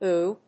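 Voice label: "ooh", English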